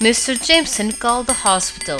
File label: noisy speech reference; soundstream